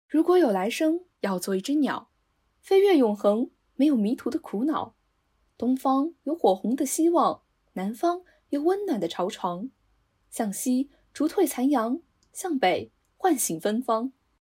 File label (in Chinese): 情感类